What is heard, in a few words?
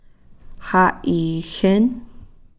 "ha'iixin", eight